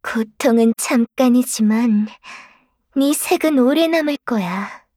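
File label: kill Player, Voice Line, Korean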